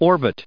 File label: "orbit", us